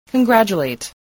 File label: "congratulate", english